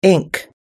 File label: "ink", us english